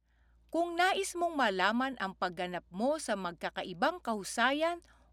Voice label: tagalog documentaries